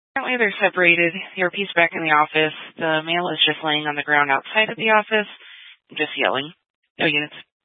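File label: Police Dispatch, Scanner audio; Police 1 Dispatch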